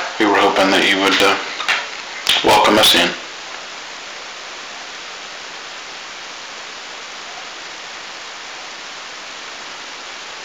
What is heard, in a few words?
with voices in background; The Old Place